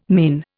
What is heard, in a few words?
"mane", Indian